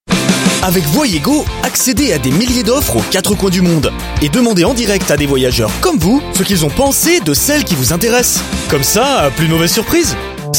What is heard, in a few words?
French showreel commercials